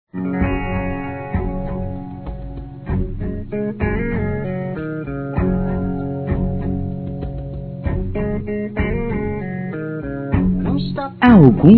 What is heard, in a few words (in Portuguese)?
Romântica Feminino; Dia Dos namorados; Telemensagens 2017